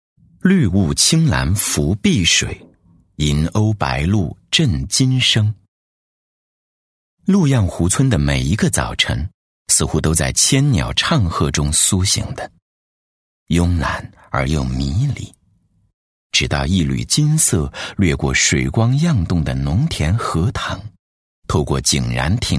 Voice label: 男 景区宣传片 大气唯美; 镂金琢翠渌洋湖; 磁性低沉; 质感磁性

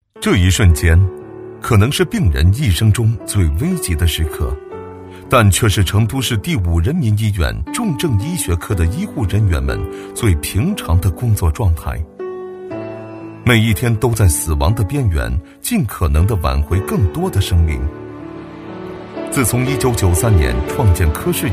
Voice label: 男 医疗宣传 重症医学; 男298-大气磁性 低沉走心

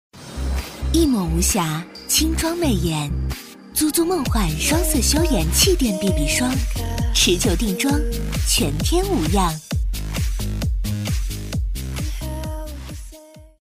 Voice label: 女 品质广告 知性动感; BB霜; 质感磁性

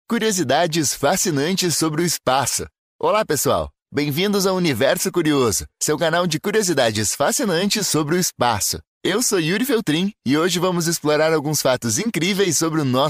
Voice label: 葡萄牙男声

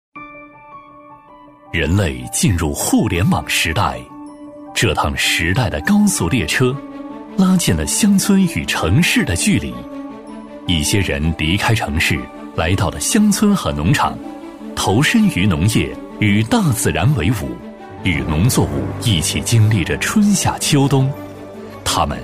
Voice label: 男 产品宣传 年轻抒情; 刚柔并济

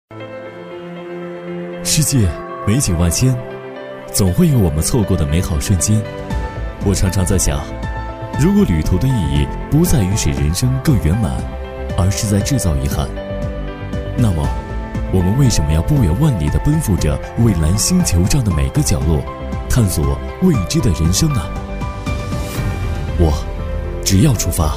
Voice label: 男 电台广告 年轻稳重时尚; 励志广告; 厚重有力 科技时尚

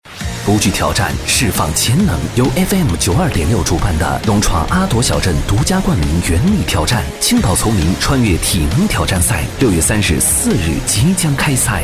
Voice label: 男 电台片头 磁性大气; 大气激昂

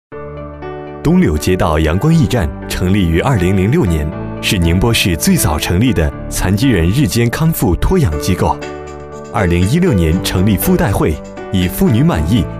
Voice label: 男 专题配音 轻松自然; 阳光驿站; 男DMR-大气沉稳; 年轻时尚

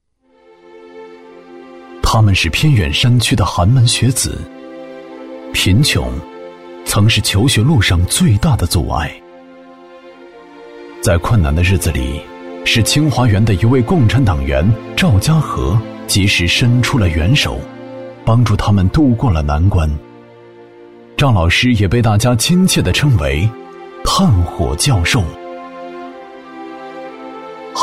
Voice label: 男 人物纪录片 情感讲述; 炭火教授; 质感磁性

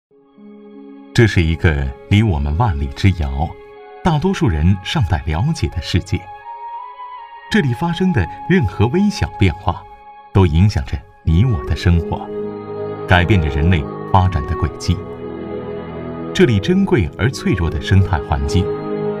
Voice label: 男, 纪录片配音, 北极, 自然地理讲解; 稳重磁性 自然诉说

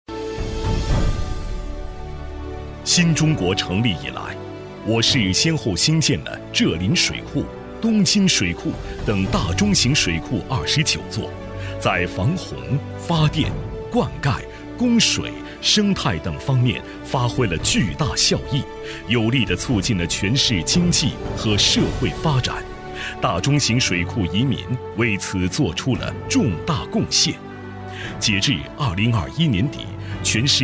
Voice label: 男, 政府宣传片, 厚重磁性; 男190-高端磁性 成熟厚重; 水利局

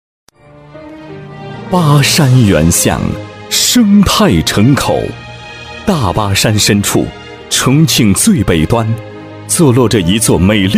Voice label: 男 扶贫专题 脱贫攻坚纪实片 大气厚重; 厚重磁性; 沉稳大气; 重庆市城口县高观镇

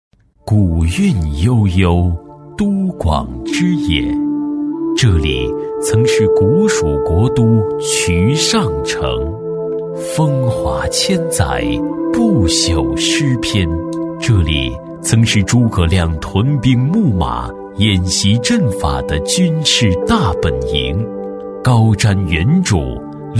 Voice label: 男 宣传片 大气柔和; 古蜀三都; 硬朗力度; 大气浑厚

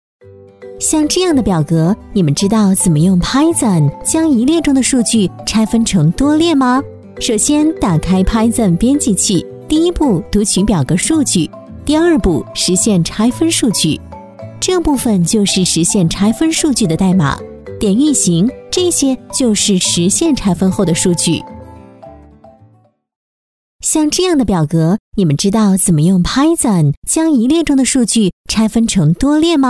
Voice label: 女 课件解说 轻松活泼, 甜美亲切

